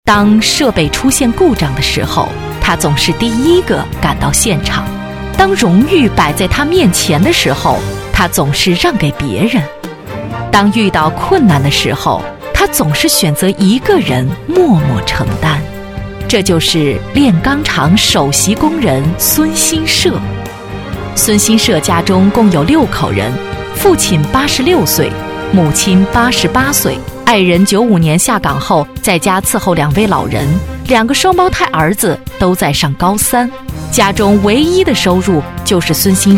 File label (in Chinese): 专题 浑厚 解说